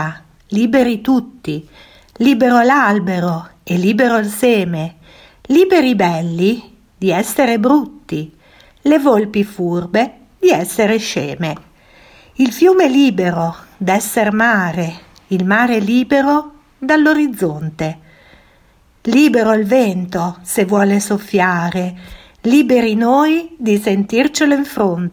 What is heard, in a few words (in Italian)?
filastrocca